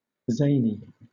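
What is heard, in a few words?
"zany", British English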